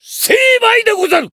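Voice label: Voice finish, Japanese; cyrus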